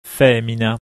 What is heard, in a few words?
"femina", Latin